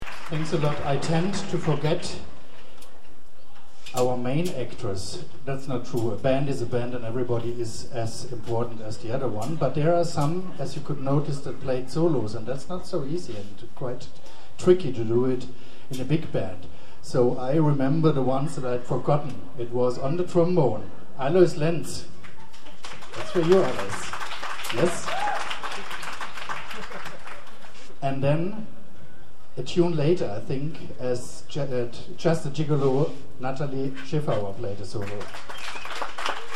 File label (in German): Ansage